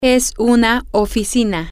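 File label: it is an office, Spanish, female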